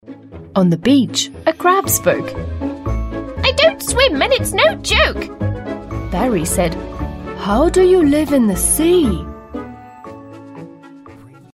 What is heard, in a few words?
english british